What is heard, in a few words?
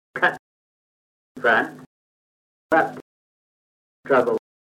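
kent farningham male strut, accents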